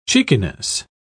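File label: "cheekiness", British English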